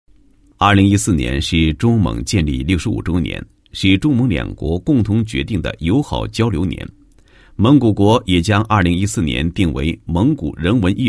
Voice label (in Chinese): 男 解说; 主题艺术展